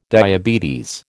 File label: "diabetes", American